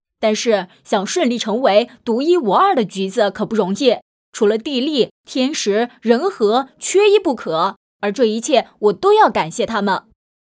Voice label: 男女声角色配音 短剧配音

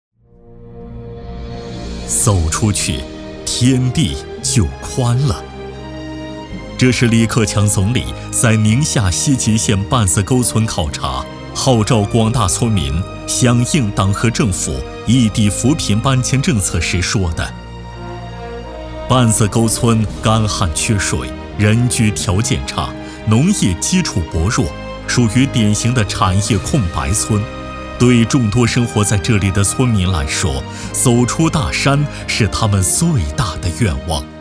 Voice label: 男 扶贫专题

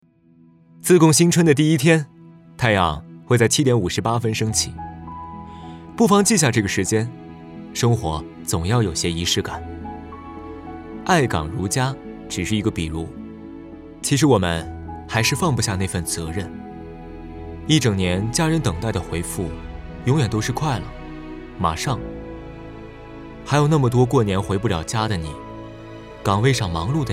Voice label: 男 宣传片 年轻素人; 自贡样音

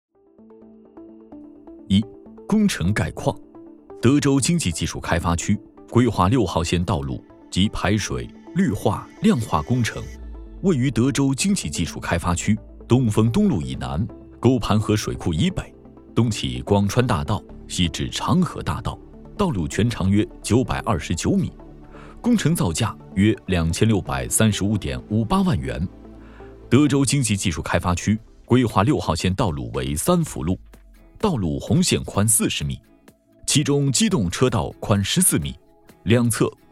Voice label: 男 规划专题

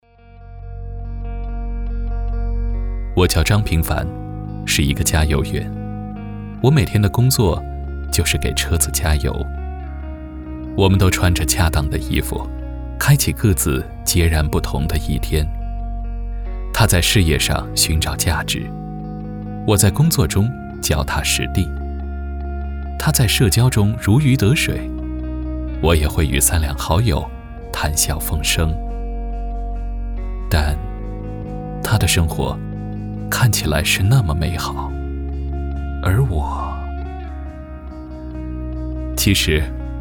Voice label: 男 旁白 人物 自述; 知足

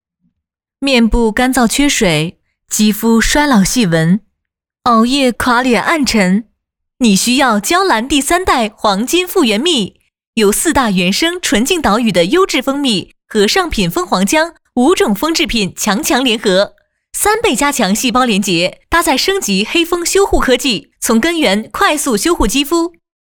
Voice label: 女 电商欢快广告; 娇兰

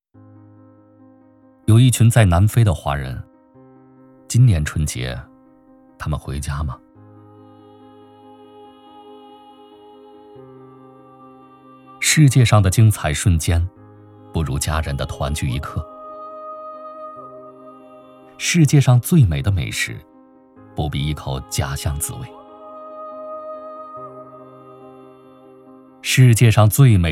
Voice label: 男, 走心旁白; 回家的路

